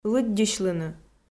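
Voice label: "лыддьысьлыны", Komi, female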